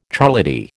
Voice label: "charlady", American